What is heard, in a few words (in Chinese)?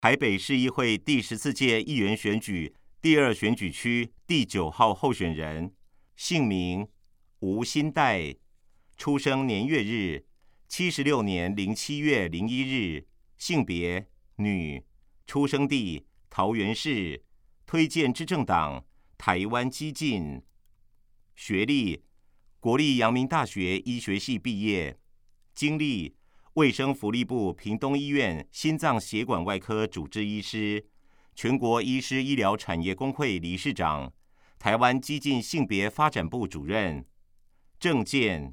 國語; 臺北市; 有聲公報; 市議員; 臺北市議會第14屆議員第2選舉區 (內湖、南港區)候選人介紹